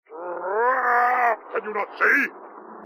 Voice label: growl can you not see; h2dialogue; elite loose